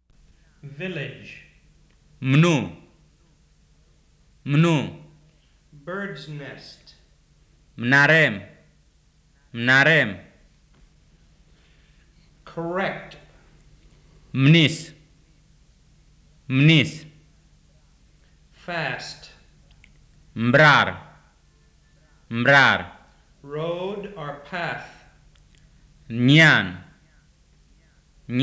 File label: BIAK